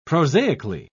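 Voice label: "prosaically", British English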